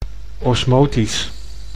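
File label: "osmotisch", Dutch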